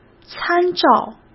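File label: "参照", Mandarin Chinese; hsk; chinese-flashcards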